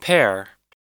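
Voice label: "pair", American English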